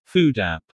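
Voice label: "food app", English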